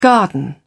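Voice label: "garden", British English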